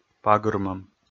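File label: "пагырмӧм", Komi, male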